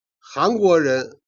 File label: "jian", Chinese